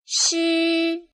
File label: "shi", pinyin